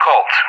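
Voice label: "Colt", English; Callsign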